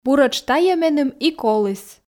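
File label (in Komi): буретш тайӧ меным и коліс, Komi, female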